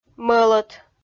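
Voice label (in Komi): "мӧлӧт", Komi, female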